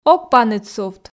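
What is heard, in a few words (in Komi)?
ог паныд сувт, Komi, female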